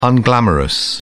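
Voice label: "unglamorous", English, uk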